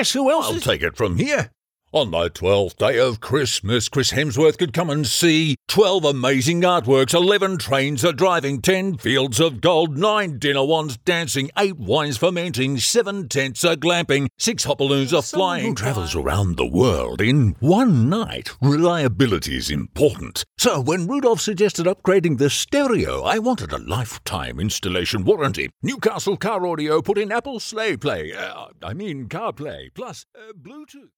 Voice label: Santa, Voice Overs

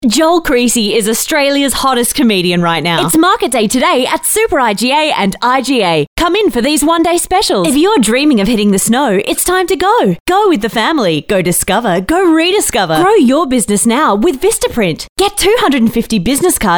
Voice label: Hard Sell, voice-overs

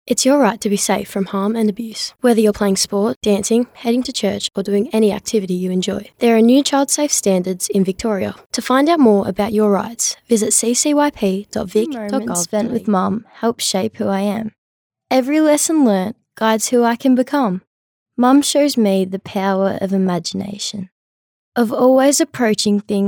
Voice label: FVO

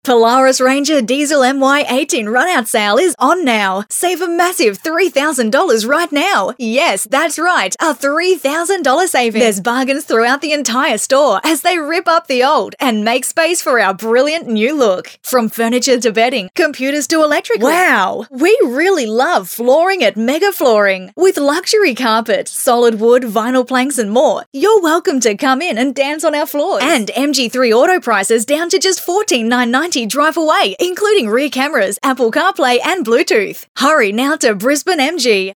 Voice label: Hard Sell; Voice Overs

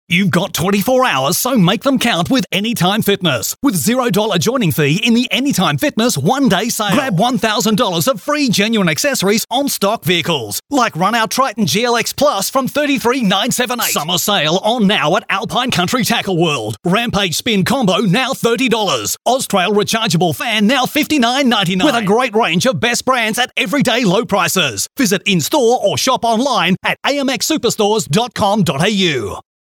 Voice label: Hard Sell

